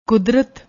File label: "kudrat", Punjabi